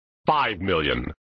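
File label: "Five Million", male voice; Worf; flipper; sttng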